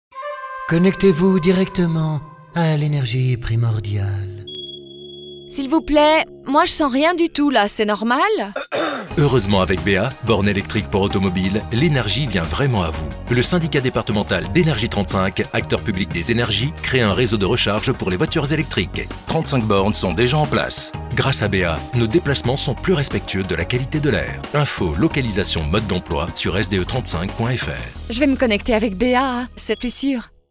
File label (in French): spot